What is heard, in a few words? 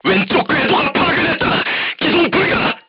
voice message left track knocked down, korean, crew tanks